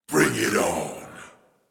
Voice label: evil; VO; arcane